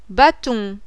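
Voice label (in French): "BATON", French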